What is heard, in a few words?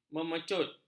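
"memecut", Malay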